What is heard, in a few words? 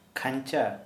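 Santali male cage; Cult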